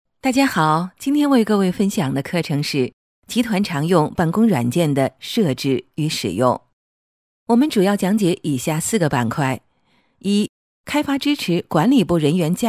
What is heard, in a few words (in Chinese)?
培训课件 集团常用办公软件, 女